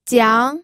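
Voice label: "jiang2", Mandarin Chinese second tone; pinyin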